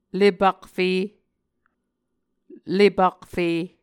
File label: "lepakfe", Muscogee